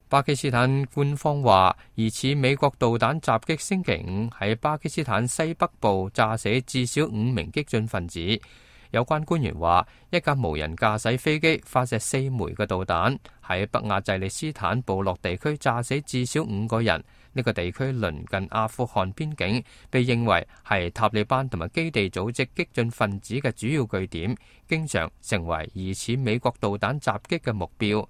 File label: cantonese, news